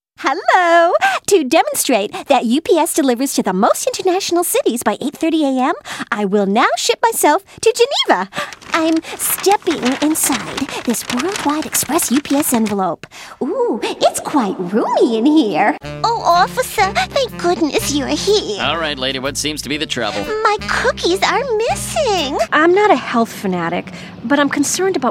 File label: commercial